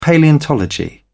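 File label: "palaeontology", British English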